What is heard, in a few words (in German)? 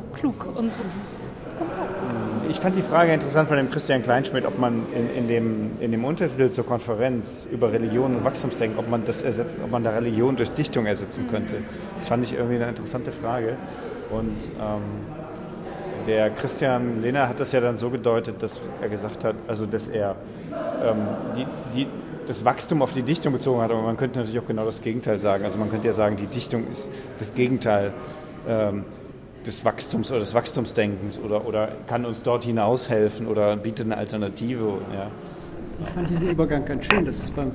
Audio Lesung